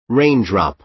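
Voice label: "rain drop", American English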